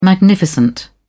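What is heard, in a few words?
"magnificent", British English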